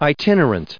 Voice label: "itinerant", en us

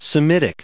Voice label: "Semitic", us

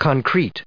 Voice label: "concrete", us

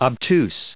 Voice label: "obtuse", en us

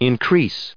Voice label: "increase", us

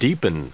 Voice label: "deepen", en us

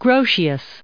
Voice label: "groot", us

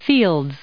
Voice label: "fields", us

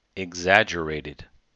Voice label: "exaggerated", us

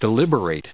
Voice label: "deliberating", en us

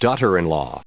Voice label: "daughter-in-law", en us

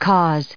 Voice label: "cause", us

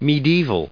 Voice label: "Medieval", us

